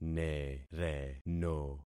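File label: "nereno", concatenated syllables; Affixing experiment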